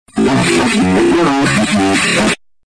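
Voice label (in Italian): "rose", Italian; Rose chiederò, giù pista.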